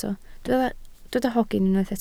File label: "hogyn", Welsh